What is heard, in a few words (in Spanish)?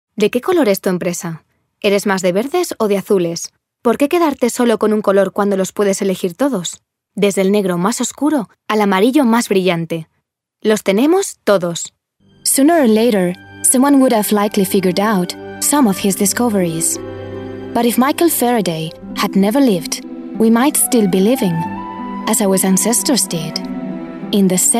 Femenino